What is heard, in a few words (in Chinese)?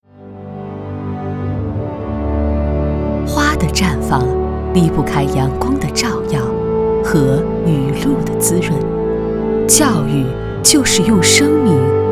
女 宣传片 学校宣传; 杨宋中学